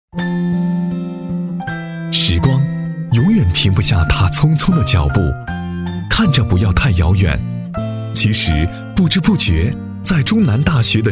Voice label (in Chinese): 男 学校专题 悠扬 唯美; 大气磁性; 质感磁性